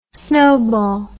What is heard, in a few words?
"snobol", english